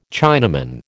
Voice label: "Chinaman", American